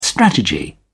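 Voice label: "strategy", British English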